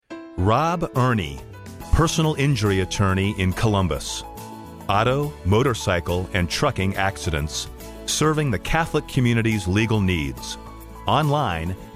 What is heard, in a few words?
radio ad; ohio injury law